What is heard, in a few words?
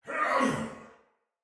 Attack Heavy Soldier Male, Battle; Barks; Dialog